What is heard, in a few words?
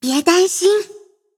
loading, zh-hant; cookie run